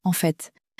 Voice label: "en fait", french; flashcards